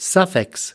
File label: "suffix", us